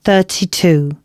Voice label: announcements, female